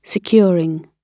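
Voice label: "securing", British English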